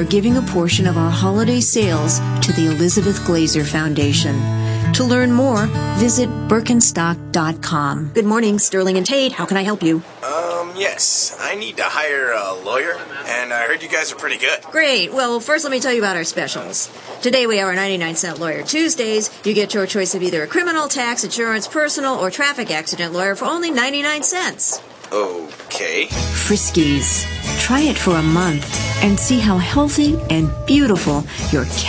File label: natural character, female